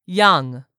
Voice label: "YOUNG", English; 3000 words

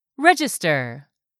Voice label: "register", English; 3000 words

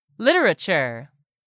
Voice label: "literature", English; 3000 words

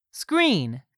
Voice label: "SCREEN", english; 3000 words

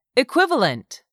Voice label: "EQUIVALENT", english; 3000 words

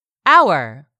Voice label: "hour", English; 3000 words

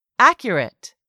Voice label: "accurate", English; 3000 words

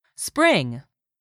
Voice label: "spring", English; 3000 words

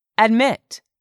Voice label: "ADMIT", english; 3000 words

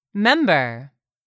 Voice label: "member", English; 3000 words

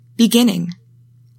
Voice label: "BEGINNING", english; 3000 words

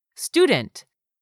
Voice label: "STUDENT", english; 3000 words

